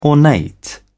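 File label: "ornate", British English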